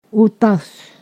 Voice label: "sibling", Ponosakan